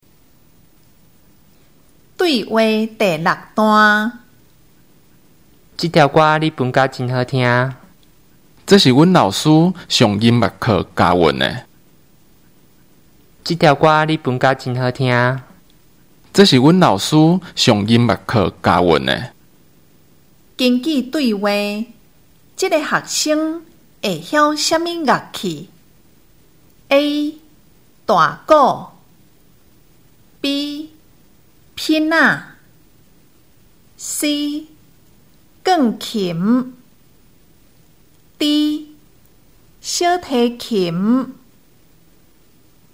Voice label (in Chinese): 對話, 閩南語; 閩南語語言能力認證; 閩南語能力測驗題本